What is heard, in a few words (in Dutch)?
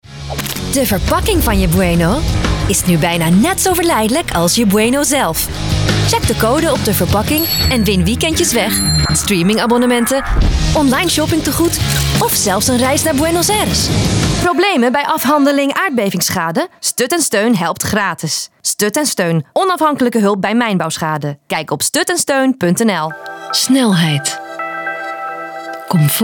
vo demo commercieel